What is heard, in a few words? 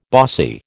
"bossy", American